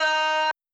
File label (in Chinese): 哇, D#5; 创价学会